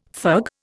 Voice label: "fug", Indian

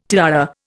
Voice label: "tiara", Indian

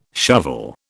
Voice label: "shovel", British

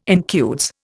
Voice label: "incudes", Indian

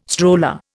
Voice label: "stroller", Indian

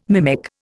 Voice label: "mimic", Indian

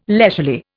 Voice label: "leisurely", Indian

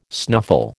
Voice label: "snuffle", American

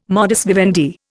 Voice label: "modus vivendi", Indian

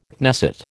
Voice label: "Knesset", American

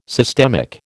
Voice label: "systemic", American

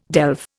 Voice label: "delve", Indian

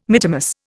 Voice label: "mittimus", Indian

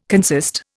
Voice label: "consist", Indian

